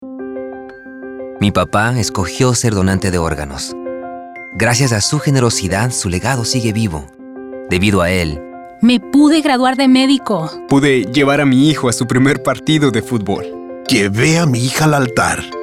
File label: Spanish